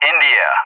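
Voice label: "India", English; JTAC; Alphabet; DCS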